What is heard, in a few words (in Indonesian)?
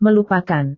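"melupakan", Indonesian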